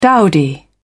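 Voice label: "dowdy", British English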